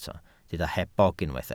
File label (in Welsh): "hebog", Welsh